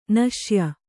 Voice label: "ನಾಸ್ಯೆ", Kannada